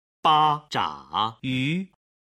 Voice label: "ba zhe yu", Chinese